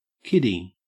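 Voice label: "kiddie", Australian English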